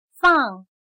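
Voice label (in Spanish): "放", chino mandarin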